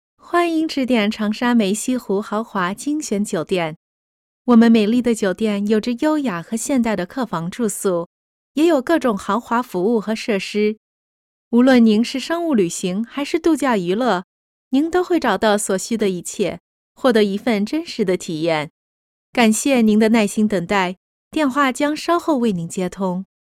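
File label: Chinese telephony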